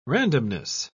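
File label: "randomness", British English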